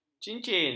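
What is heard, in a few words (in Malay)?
"cincin", Malay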